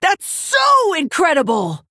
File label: Female Orc Wow